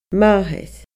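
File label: "mathair", Scottish Gaelic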